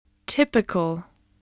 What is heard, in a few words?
"typical", English spelling voice